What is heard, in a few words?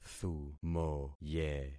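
"thumoye", concatenated syllables; Affixing experiment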